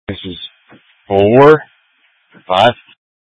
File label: Incident Tac 3, scanner